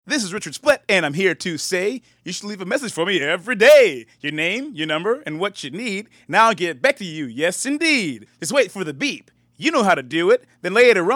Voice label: outbound voicemail message